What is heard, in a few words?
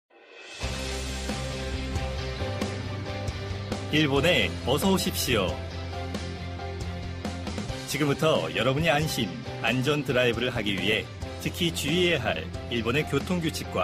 foreigner